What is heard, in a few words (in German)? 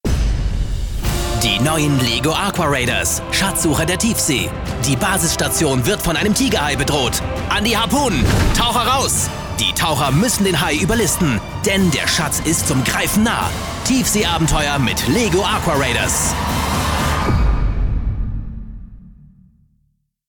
Energisch; Lego